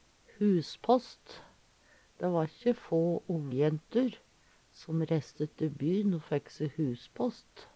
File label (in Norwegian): huspasst, Numedalsmål